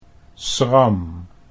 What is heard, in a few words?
"schram", Dutch